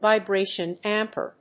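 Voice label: "VIBRATION DAMPER", English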